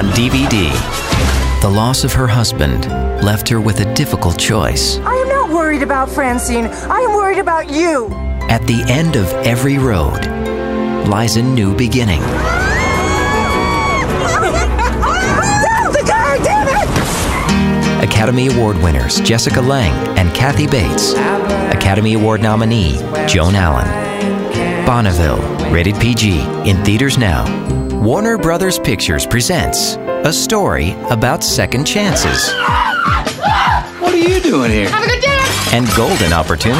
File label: Voice Over Trailers